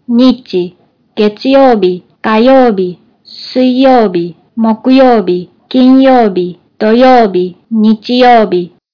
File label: japanese days